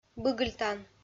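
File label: "быгыльтан", Komi-Zyrian, female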